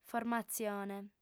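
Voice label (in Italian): "formatzione", lingua sarda, female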